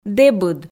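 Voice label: "дэбыд", Komi, female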